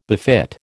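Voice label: "befit", American English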